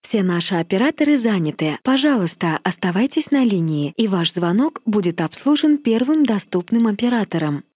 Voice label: queue periodic announce